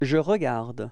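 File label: "regarder je", French; frans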